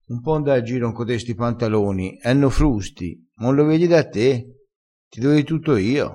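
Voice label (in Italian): "frusto", vocabolario dialettale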